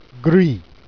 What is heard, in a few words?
"gris", Jèrriais